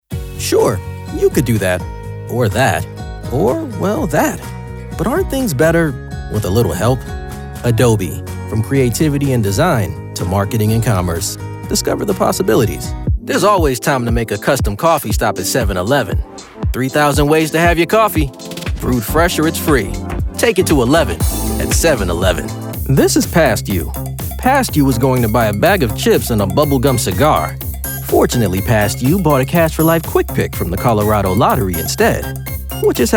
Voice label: Commercial